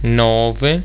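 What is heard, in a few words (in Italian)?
"nove", Italian; getal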